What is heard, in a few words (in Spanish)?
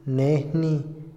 juzgar, Otomí, hombre; INALI